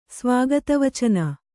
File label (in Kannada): "ಸ್ವಾಗತವಚನ", kannada